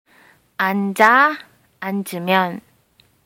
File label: sit vowel, Korean